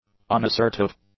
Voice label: "unassertive", English; adjectives

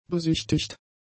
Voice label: "besichtigt", german; adjectives

